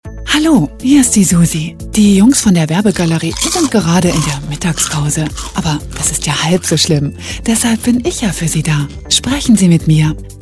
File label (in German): telefonansagen sprecher herzblatt stimme; Hollywood Sprecher am Telefon